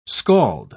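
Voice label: "skald", British English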